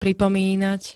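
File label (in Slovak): "pripomínať", Slovak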